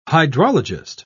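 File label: "hydrologist", British English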